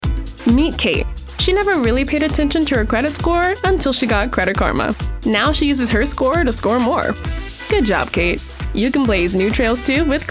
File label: Commercial